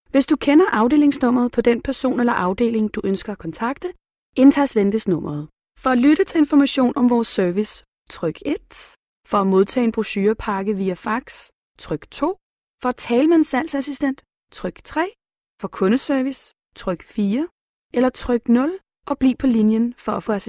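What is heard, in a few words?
Danish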